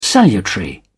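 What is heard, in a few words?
"salutary", British English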